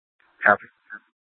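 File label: police department dispatch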